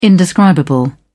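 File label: "indescribable", British English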